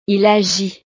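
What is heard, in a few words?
"il agit", French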